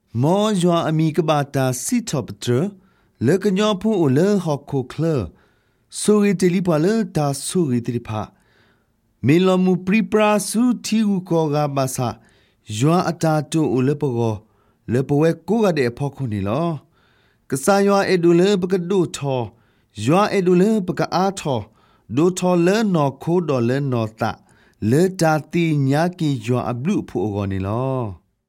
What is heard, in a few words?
Sgaw Karen